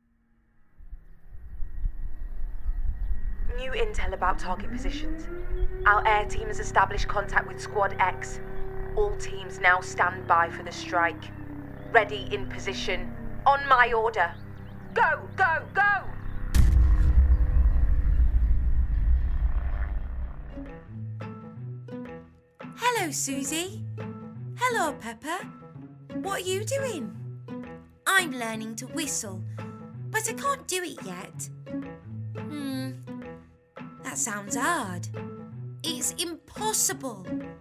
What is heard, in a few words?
Animation